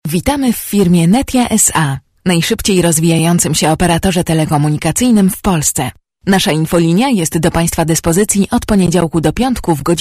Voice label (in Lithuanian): MOT Lenku balsas, moteris